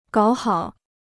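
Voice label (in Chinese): "搞好" Chinese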